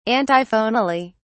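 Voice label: "antiphonally", British English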